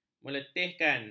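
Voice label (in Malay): "meletihkan", Malay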